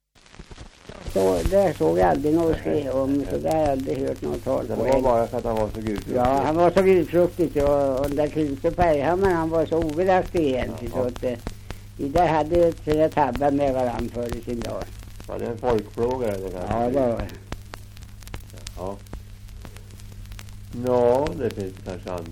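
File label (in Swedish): Björnlunda Södermanland, dialekter, Svenska sagor; Folkminnen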